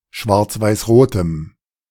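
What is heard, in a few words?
"schwarzweißrotem", German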